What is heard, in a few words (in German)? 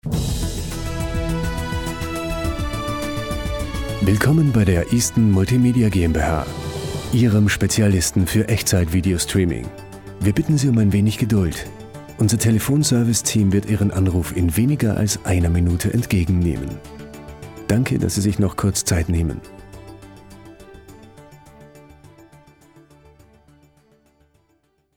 Anrufbeantworter Beispiel; Multimedia Medien